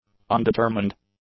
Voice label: "undetermined", english; adjectives